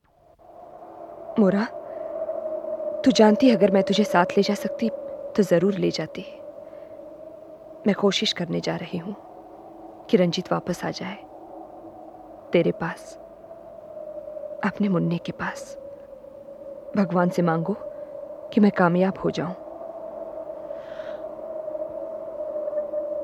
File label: dialogues, soundtrack version; ghulami